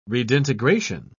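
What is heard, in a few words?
"redintegration", English, British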